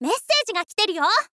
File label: girl